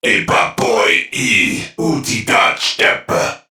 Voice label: Patrol Crewmen, Dialog, Barks